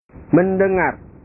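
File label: "mendengar", Indonesian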